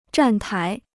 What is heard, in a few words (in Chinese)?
"站台", Chinese